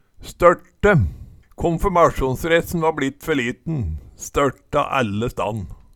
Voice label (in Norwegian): størte, Numedalsmål